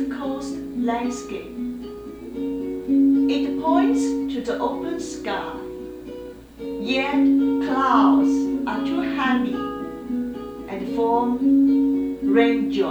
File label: My Accent with Music